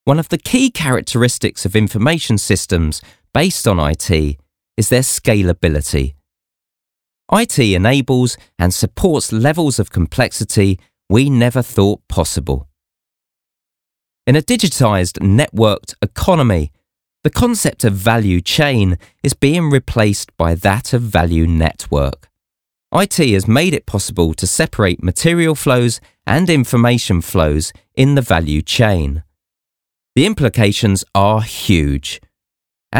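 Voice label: English male corporate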